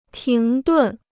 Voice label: "停顿", Chinese